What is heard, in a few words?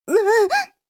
h voice; おっぱい図鑑